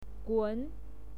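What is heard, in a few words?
"gun", Mandarin Chinese pinyin, second tone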